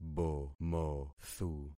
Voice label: "bomothu", concat syllables; Affixing experiment